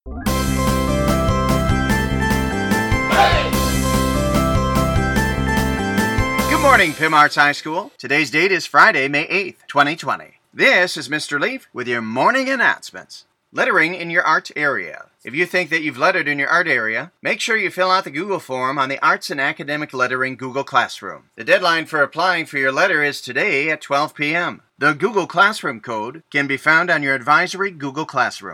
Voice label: Anouncements